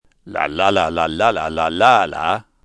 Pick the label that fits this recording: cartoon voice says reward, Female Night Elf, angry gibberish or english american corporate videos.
angry gibberish